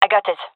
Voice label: defusing, fem; vo; gendarmerie fem epic